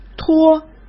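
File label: "拖", Mandarin Chinese; chinese-flashcards; hsk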